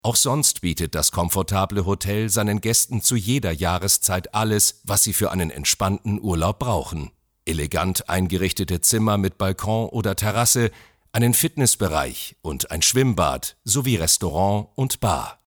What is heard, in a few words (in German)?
Imagefilm Ferienhotel neutral